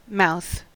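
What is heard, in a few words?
"mouth", American English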